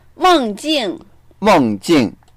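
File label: "meng4jing4", Mandarin Chinese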